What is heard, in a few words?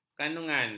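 "kandungan", Malay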